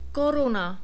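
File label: "koruna", Czech, male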